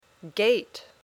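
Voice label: "gate", English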